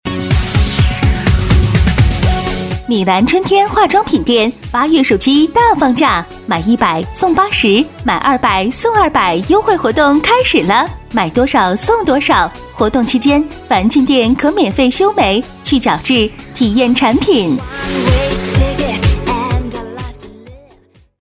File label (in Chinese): 促销 女 激情; 米兰春天